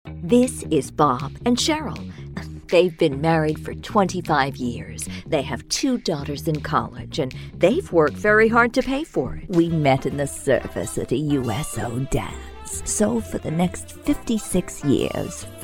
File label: Senior Demo, english; USA